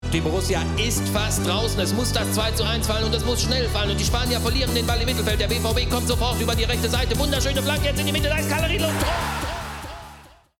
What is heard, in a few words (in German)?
Sportkommentar